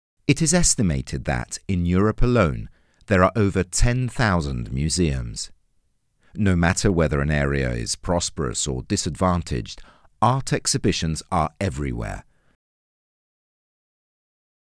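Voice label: English Italian, M, Tourguide, accent of italy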